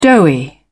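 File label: "doughy", British English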